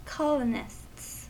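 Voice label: "colonists", US English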